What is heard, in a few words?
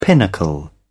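"pinnacle", British English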